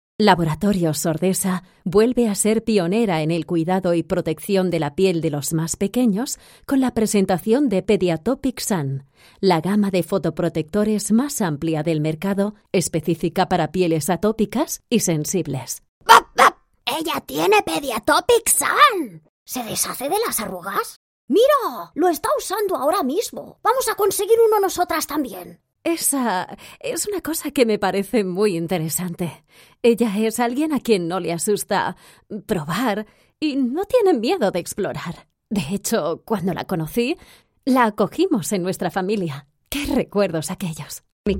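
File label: Spanish, female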